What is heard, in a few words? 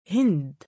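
"hind" female name, Arabic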